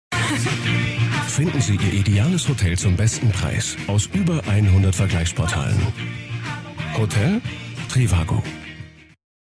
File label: tv national; trivago